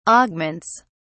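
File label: "augments", British English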